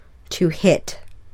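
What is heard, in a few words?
"to hit", English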